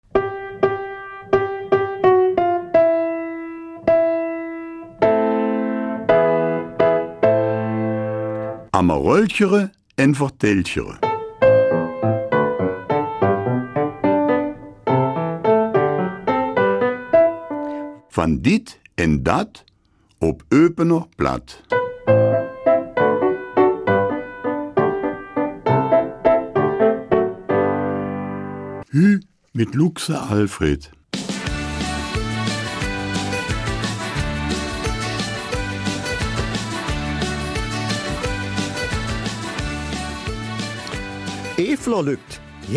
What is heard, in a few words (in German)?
Mundart Nord und Süd; Frohe Weihnachten